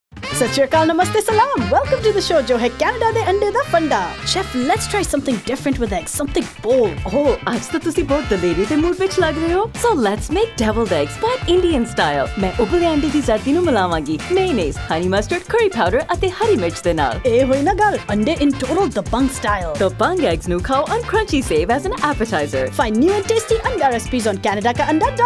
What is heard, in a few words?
Punjabi Spot; EggFarmer; Eggs SA